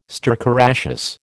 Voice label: "stercoraceous", American

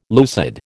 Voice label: "lucid", American

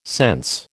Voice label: "sense", American

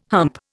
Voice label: "hump", Indian

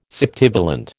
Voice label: "septivalent", American

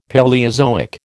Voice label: "Paleozoic", American

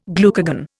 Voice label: "glucagon", Indian

